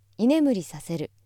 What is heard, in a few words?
female